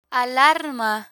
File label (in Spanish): "alarma", Spanish; security guard vocabulary